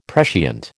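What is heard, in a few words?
"prescient", American English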